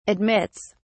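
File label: "admits", British English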